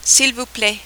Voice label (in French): "s il vous plait", French